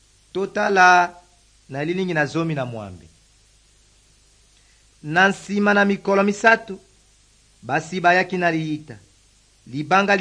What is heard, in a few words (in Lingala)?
good news lingala west, drama